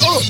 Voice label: "uh" STAB; ooh ahh